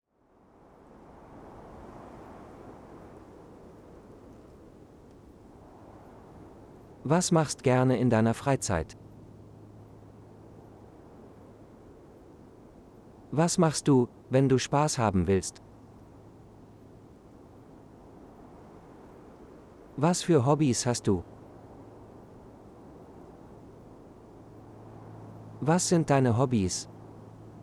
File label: Relax Wind Phrases, de-en; Hobbies